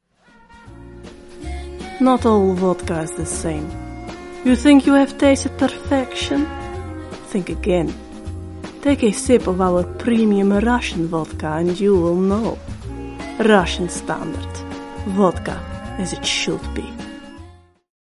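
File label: Dutch English, female, commercial, accent of the netherlands; voice over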